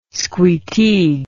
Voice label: "squeteague", english